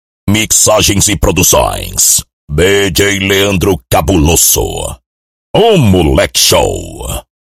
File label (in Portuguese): locutor